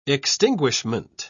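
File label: "extinguishment", British English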